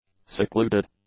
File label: "secluded", English; adjectives